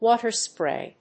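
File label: "water spray", English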